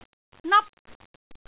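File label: "nap1", Cantonese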